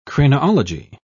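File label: "craniology", English, British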